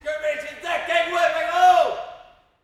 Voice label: sailor voice aircraft carrier

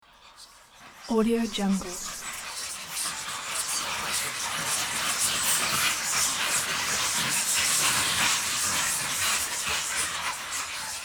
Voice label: whisper

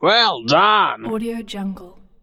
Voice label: well done parrot voice

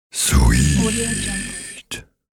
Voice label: deep sexy male voice sweet

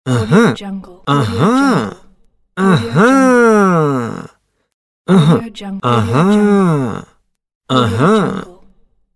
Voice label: male voice "aha"

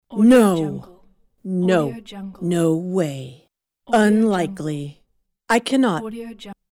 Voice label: female saying no refuse

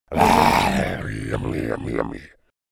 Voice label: cartoon lion yummy

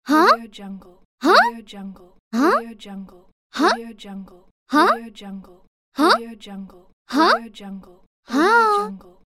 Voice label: female child saying huh

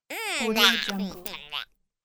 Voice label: cartoon pitched stinky voice

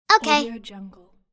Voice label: child saying "okay"

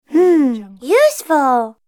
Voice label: cartoon girl useful

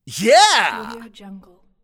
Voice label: "yeah" male voice game phrase

